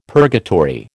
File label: "purgatory", American